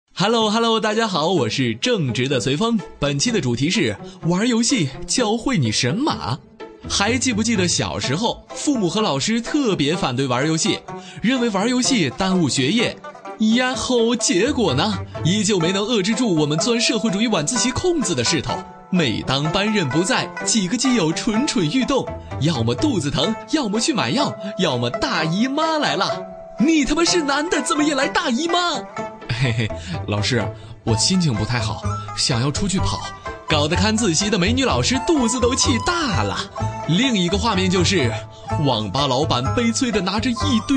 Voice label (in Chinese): 男 电台; 游戏教会你神马